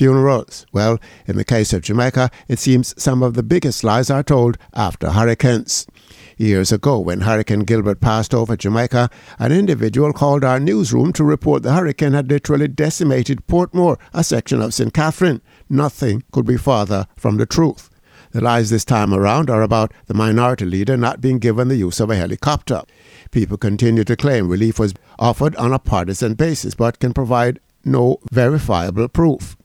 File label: COMMENTARY